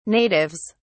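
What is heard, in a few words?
"natives", British English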